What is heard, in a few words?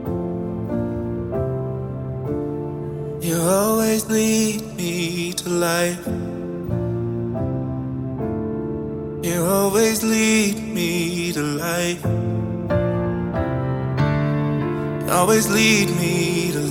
Spoken Word